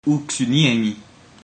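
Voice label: no understand, ancient Greek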